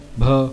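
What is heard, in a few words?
"bha", consonants of the ĐevaNāgarī script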